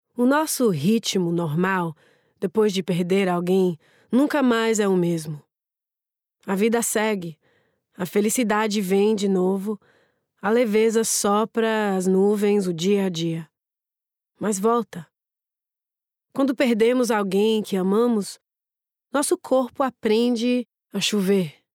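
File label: Brazilian Language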